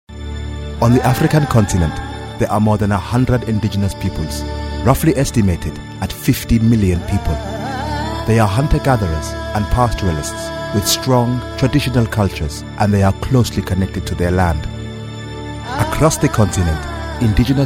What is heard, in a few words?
caribbean accent